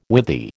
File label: "withy", American